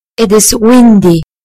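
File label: "windy", British English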